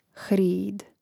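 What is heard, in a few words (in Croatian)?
"hrid", hrvatski; mreznik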